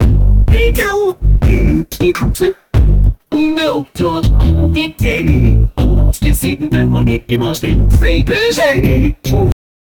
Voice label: girl sound, video game